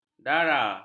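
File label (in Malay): "dara", Malay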